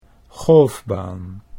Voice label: "golfbaan", Dutch

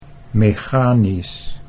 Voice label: "mechanisch", Dutch

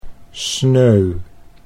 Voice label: "sneu", Dutch

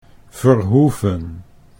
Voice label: "Verhoeven" Dutch

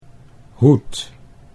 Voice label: "hoed", Dutch

